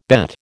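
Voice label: "bet", American English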